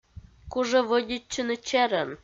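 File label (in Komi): кужӧ вӧдитчыны черӧн, Komi, female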